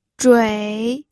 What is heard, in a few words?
"zhui3", pinyin